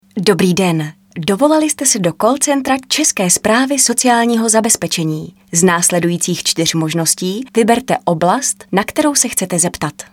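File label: Female Czech IVR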